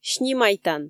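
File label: "снимайтан", Komi, female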